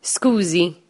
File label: excuse me maltese